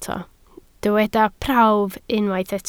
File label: "prawf", Welsh